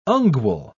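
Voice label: "ungual", British English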